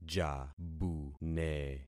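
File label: "jabune", concatenated syllables; Affixing experiment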